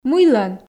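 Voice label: "мыйлӧн", Komi, female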